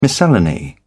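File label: "miscellany", British English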